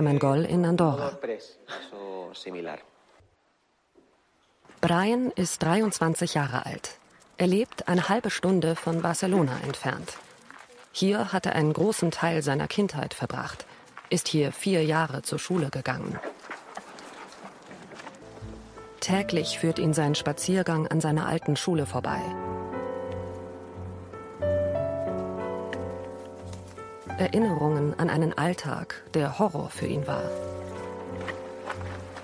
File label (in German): Mobbing Doku Erzaehlerin; ARTE RE